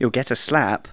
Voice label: "you'll regret that", Speech; Worms Armageddon